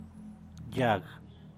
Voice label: "yaag", San Mateo Macuilxochitl Zapotec, tree; árbol